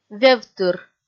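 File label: "вевтыр", Komi, female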